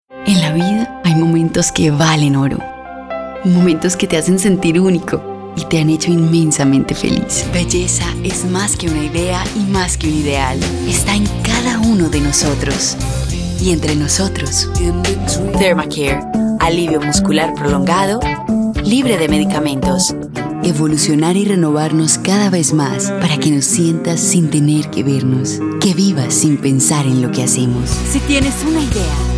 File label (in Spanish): Comerciales